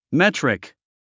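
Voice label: "metric", English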